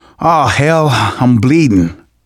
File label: getting revived, manager, survivor, voice